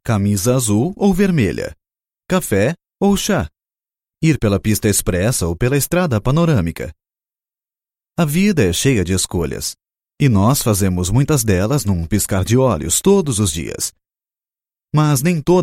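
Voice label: Portuguese Brazilian, male, corporate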